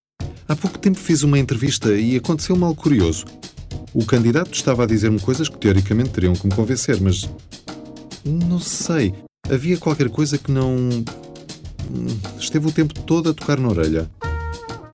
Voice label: male portuguese comercial; ideasonora

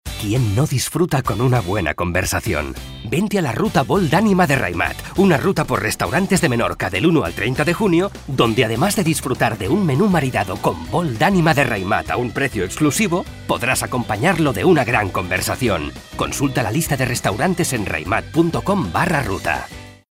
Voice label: male spanish wine comercial; ideasonora

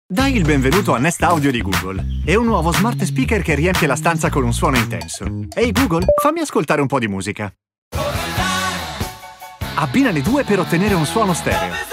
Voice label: male Italian tv comercial; ideasonora

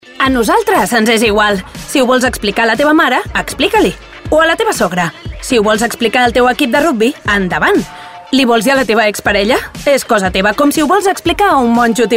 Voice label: female catalan phone comercial

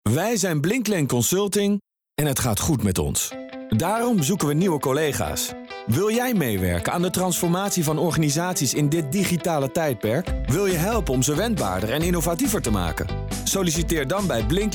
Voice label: male german comercial

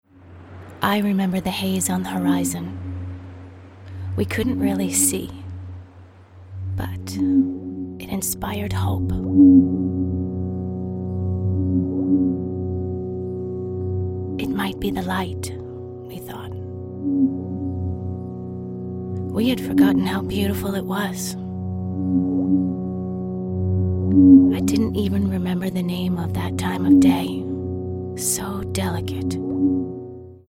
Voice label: female american english comercial

